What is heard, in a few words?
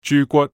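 "豬骨", Cantonese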